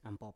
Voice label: "ampop" Biak

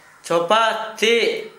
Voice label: "tʃopa teʔ", Gta, Dialect: Hill; husked, be (vb.)

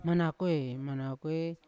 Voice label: "manakoe", Yawa; wide; unat

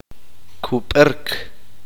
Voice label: uspanteko; sentarse

